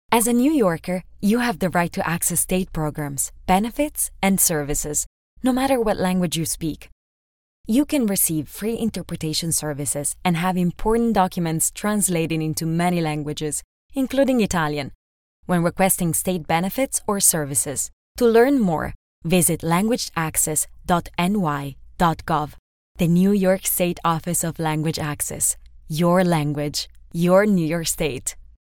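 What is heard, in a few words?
Italian, female, English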